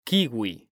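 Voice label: "kiwi", Spanish, male; mekanta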